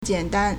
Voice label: "jian1 dan1", Chinese